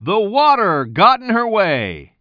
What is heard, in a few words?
commentator